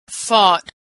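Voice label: "fought", American English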